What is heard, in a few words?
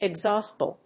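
"exhaustible", English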